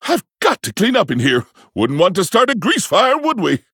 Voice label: Male Pandaren Flavor

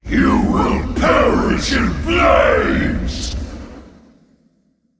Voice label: Threaten

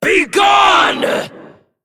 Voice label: Female Dragon Attack

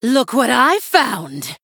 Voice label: Female Night Elf Attack

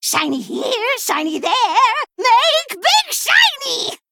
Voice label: Female Kobold Play

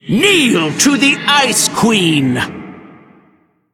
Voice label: Female Elemental Attack

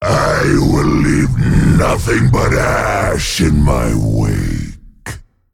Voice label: Male Elemental Bark; Baron Geddon

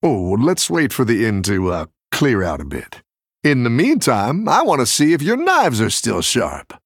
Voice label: Male Human; Scabbs

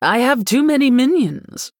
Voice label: Female Human

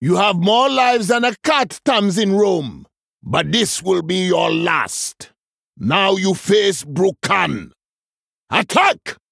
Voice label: Male Troll; Brukan; Boss Reveal

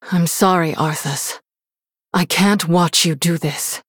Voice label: Female Human Victory; Jaina; Story Uther Mission7

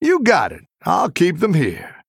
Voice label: Male Human After Freezing

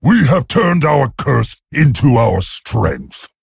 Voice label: Male Worgen

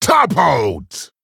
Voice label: Male Troll Attack

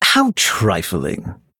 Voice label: Male Blood Elf Oops

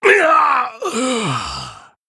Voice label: Male Venthyr Death

